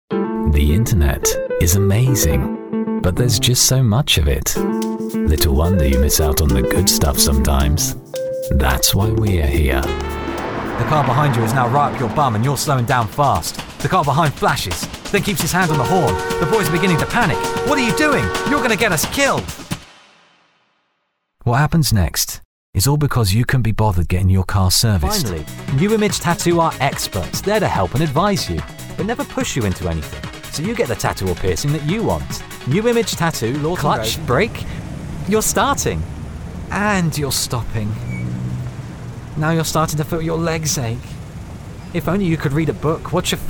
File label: Radio Commercials